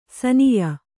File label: "ಶಾನಾಯ", Kannada